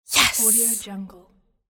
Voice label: teenage girl whispering astonished yes; applehillstudios

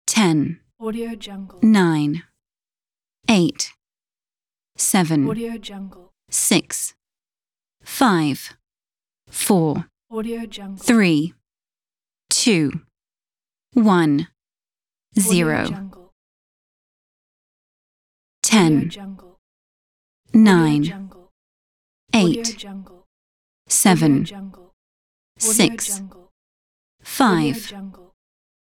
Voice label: countdown british female ten to zero; applehillstudios

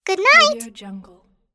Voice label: cartoon girl "goodnight"

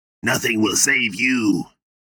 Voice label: vocal nothing will save you male 30s; rogue custom line; fantasy voice

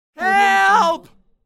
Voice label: male desperate call for help

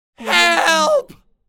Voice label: male desperate call for help

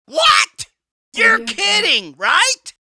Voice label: male comical what youre kidding right

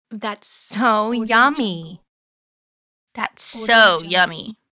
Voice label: female saying thats so yummy

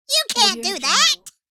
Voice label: cartoon voice you cant do that

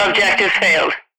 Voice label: female military radio voice objective failed

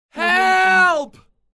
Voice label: male desperate call for help

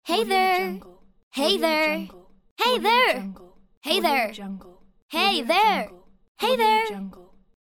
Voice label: teenage girl saying hey there

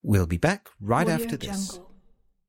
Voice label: voice we will be back right after this british

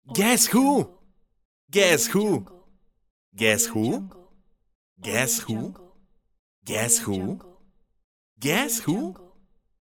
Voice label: male asking guess who

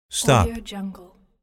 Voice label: male voice stop

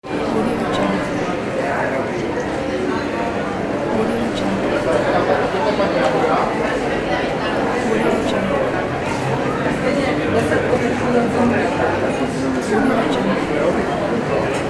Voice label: many people talking

